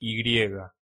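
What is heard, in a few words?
"y", Spanish pronunciation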